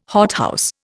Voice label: "hothouse", Indian